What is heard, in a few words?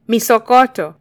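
"misokoto", Kenyan Swahili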